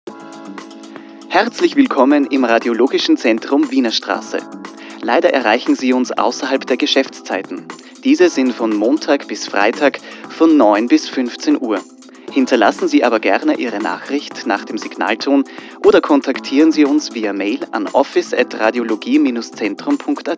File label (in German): telefon